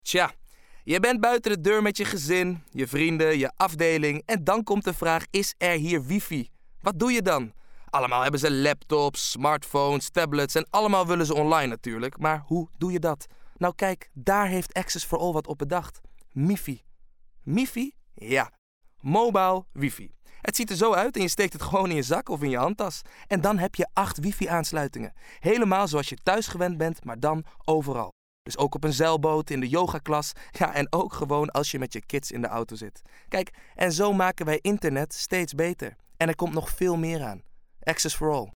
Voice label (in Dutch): urban; XS4ALL